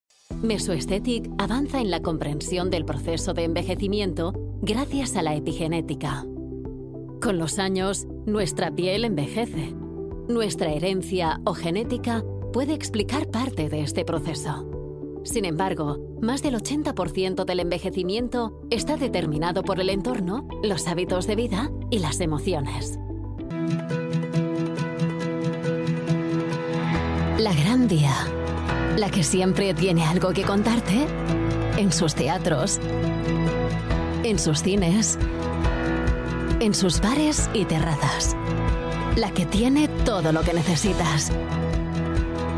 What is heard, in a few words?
spanish corporates